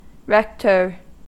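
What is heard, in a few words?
"rector", American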